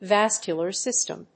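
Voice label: "VASCULAR SYSTEM", English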